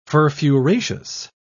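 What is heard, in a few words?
"furfuraceous", British English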